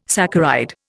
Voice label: "saccharide", Indian